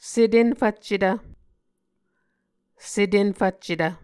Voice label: "setenfvccetv", Muscogee; Mvskoke Words with Repeated Consonants; A Dictionary of Creek/Muskogee; SIL International